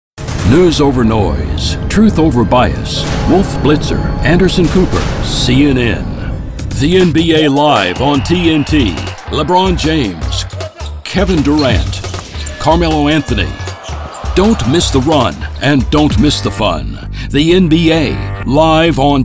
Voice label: Promo